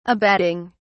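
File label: "abetting", British English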